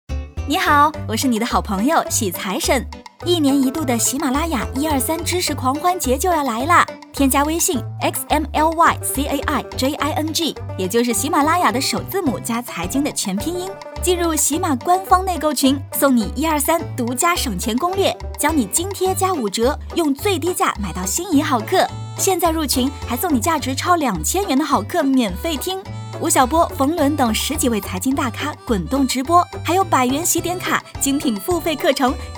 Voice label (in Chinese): 活动介绍口播